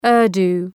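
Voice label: "Urdu", English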